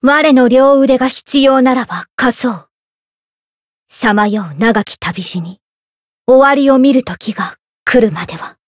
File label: story, jp; eva